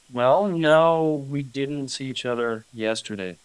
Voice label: Voice Acting es M